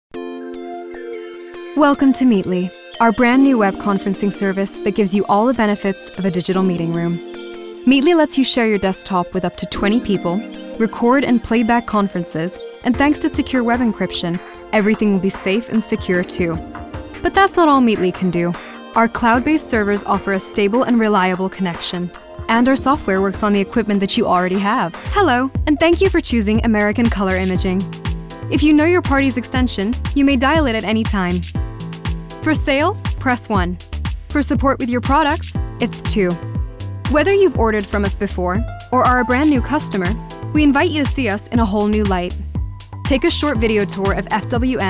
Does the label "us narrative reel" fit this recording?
Yes